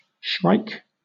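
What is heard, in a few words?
"shrike", British English; pronunciations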